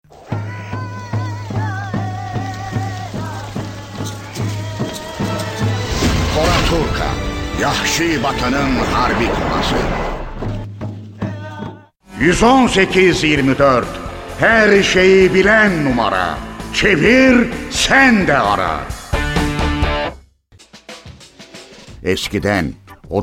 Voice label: turkish m commercial